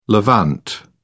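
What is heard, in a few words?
"levant", British English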